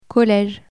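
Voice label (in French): "college" French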